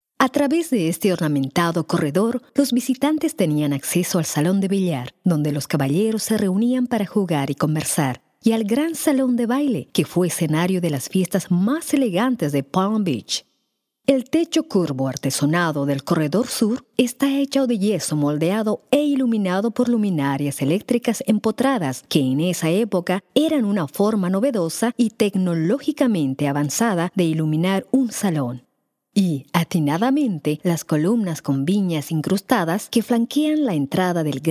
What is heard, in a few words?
Spanish south hall